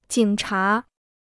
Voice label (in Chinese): "警察", Chinese